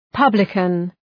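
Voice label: "publican", English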